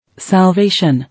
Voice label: "Salvation", English

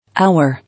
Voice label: "Our", English

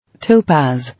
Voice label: "Topaz", English

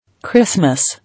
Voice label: "Christmas", English

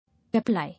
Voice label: "Apply", English

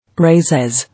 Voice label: "Recess", English

